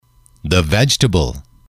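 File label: "the vegetable", English, male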